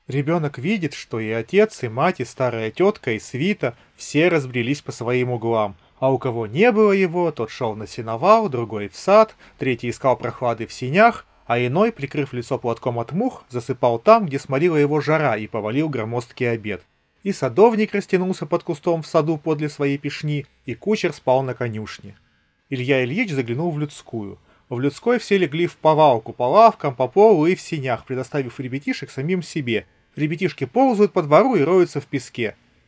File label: Recorder; oneplus nord buds 4